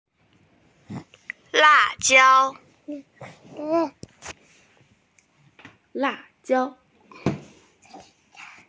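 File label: "chili pepper", Mandarin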